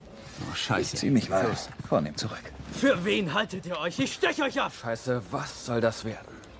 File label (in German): synchron aggressiv